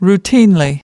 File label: "routinely", us